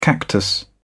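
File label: "cactus", British English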